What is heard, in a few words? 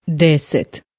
"deset", Croatian